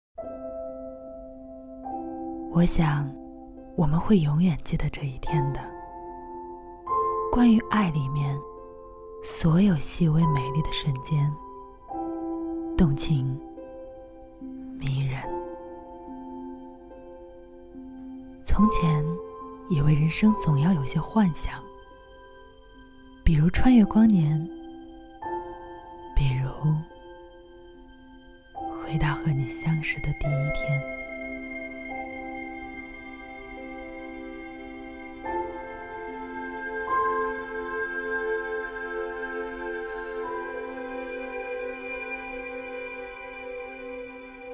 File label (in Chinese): 明媚 旁白 微电影 旅拍